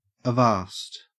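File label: "avast", Australian English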